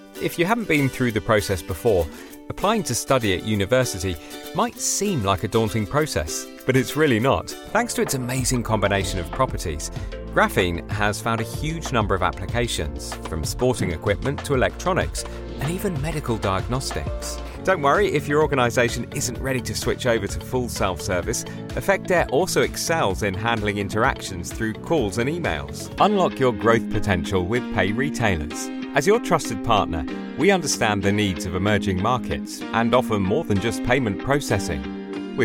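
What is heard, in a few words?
English UK Explainer; GoLocalise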